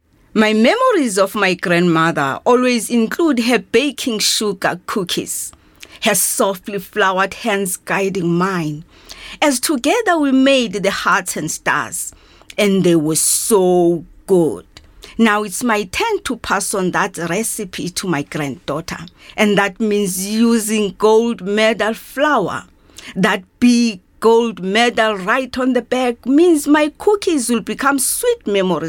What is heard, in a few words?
English Conversational